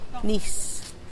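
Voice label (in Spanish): "agua", Teotitlán del Valle Zapotec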